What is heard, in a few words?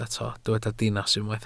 "dinas", welsh